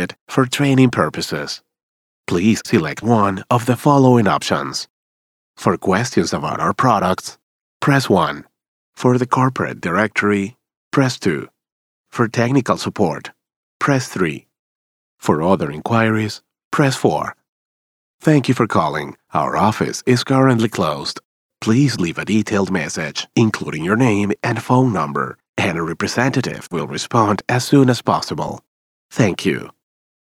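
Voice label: english interactive voice response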